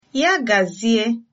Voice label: goodbye, igbo

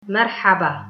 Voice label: welcome, tigrinya

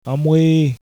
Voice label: help, haitian creole

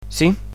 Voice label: "hello", Catalan; telephone

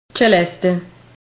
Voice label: "celeste", Italian

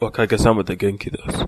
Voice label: fine, japanese

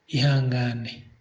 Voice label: sorry, kinyarwanda

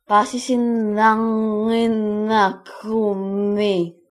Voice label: no understand, Greenlandic

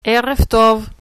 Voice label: good evening, hebrew

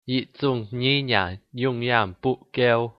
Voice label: one language, hakka

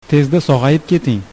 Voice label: get well soon, uzbek

